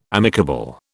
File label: "amicable", British